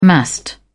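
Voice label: "massed", British English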